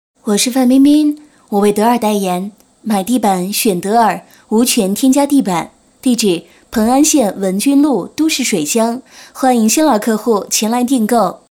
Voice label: 女 模仿, 国语女声配音; 我是范冰冰 我为德尔代言

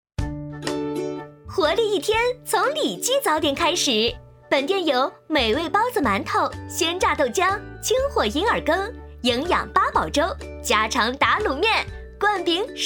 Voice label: 美食广告

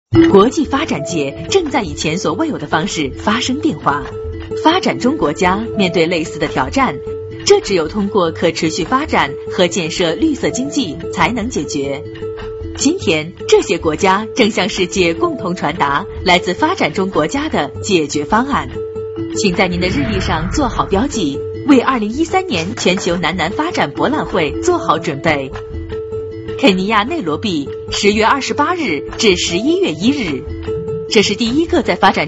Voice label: 女 专题 时尚 宣传片, 国语女声配音; 2013年全球南南发展博览会宣传片

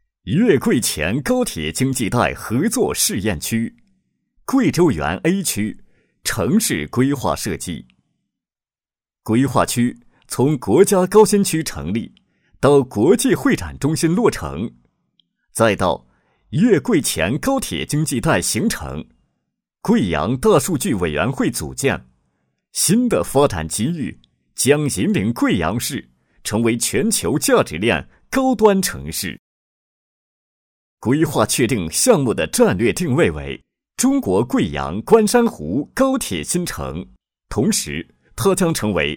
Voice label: 男 项目规划 高铁经济带

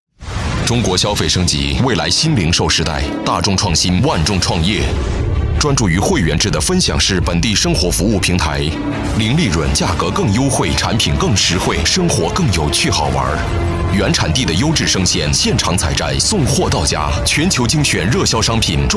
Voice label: 广告; 惠玩

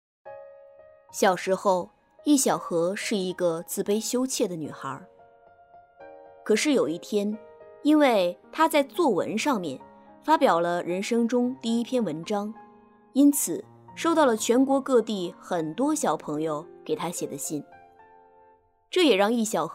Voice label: 女 人物讲述 知性成熟音

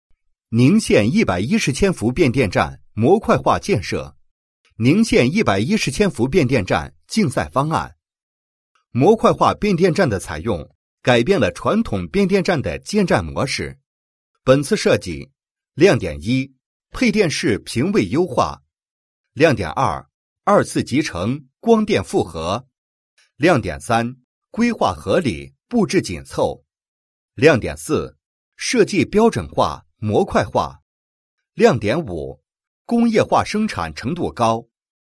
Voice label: 男 项目解说 输变电设计竞赛设计方案; 甘肃省电力公司2016年

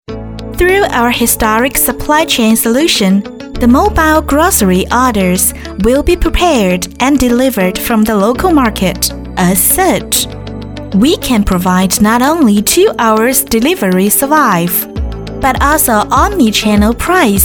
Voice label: 女 英文专题 活泼

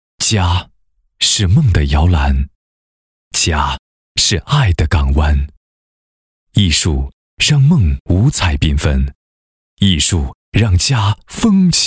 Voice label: 男, 企业宣传; 福州弘博工艺企业宣传配音

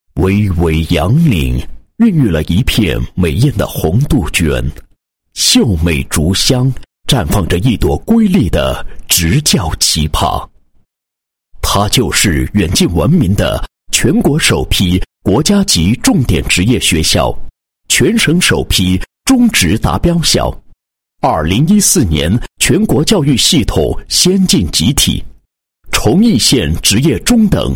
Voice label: 男 学校 招生宣传解说词; 某中等专业学校

